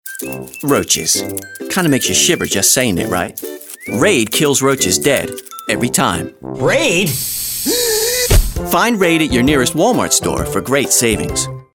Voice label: American Male Narrator, Mid-Atlantic; audiobooks; Raid